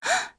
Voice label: Vox, en; kingsraid